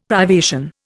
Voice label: "privation", Indian; Dictionary